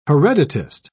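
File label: "hereditist", British English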